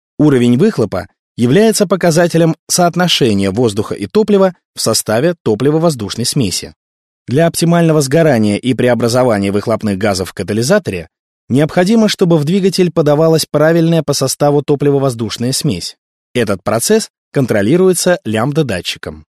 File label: ru elearning